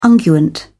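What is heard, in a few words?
"unguent", British English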